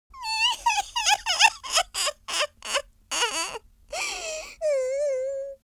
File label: sad